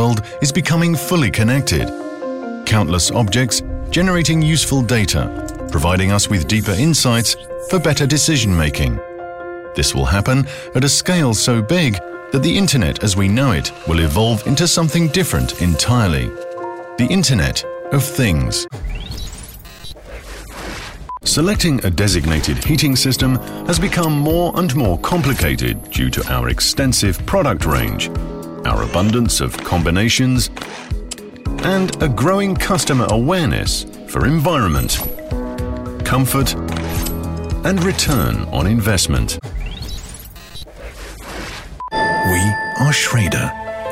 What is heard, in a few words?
corporate